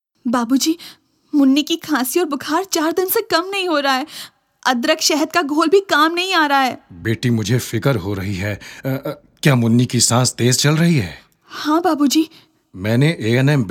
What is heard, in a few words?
Radio spot Mother Grandfather